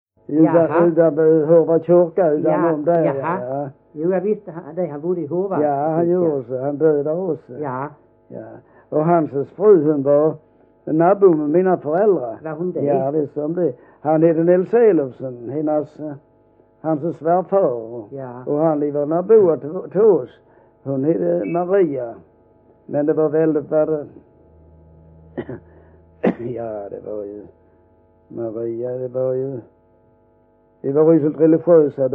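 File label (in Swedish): Vollsjö Skåne, dialekter; Folkminnen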